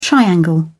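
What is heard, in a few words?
"triangle", British English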